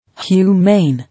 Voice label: "Humane", English